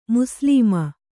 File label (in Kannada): "ಮುಸ್ಲಮ", Kannada